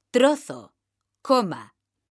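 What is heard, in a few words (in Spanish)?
trozo coma, Spanish, female; mekanta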